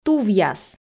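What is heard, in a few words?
"тувъяс", Komi, female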